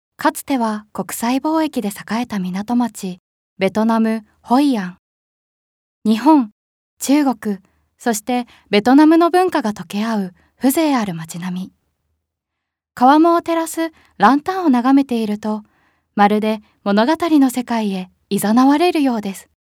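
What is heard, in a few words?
narration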